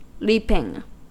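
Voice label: "leaping", US English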